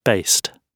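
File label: "based", British English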